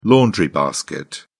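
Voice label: "laundry basket", British English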